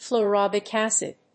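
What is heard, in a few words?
"Fluoroboric acid", English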